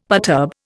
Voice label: "perturb", Indian; Dictionary